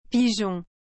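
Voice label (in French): "pigeon", French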